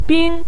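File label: "bing" neutral tone, Mandarin Chinese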